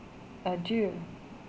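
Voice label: "adieu", Canadian, female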